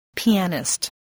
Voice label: "pianist", English

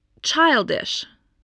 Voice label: "childish", English, voice

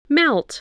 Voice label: "melt", voice, spelling

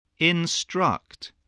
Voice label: "instruct", voice spelling

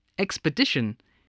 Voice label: "expedition", voice

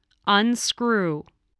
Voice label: "unscrew", English spelling voice

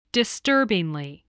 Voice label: "disturbingly", spelling

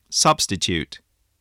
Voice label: "substitute", spelling

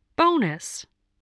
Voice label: "bonus", spelling

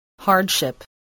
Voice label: "hardship", English, spelling

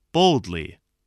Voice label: "boldly", spelling

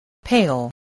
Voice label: "pale", English voice

